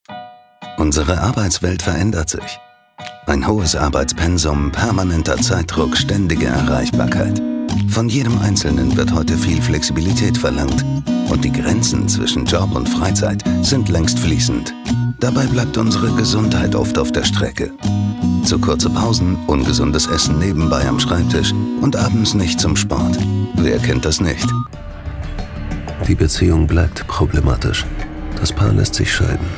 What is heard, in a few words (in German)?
Doku